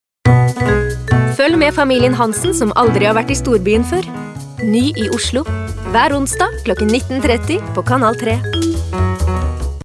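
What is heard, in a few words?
Norwegian tv promo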